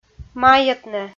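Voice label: "маетнӧ" Komi, female; kpv audio dict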